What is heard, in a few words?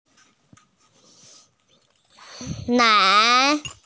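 "nan2", Mandarin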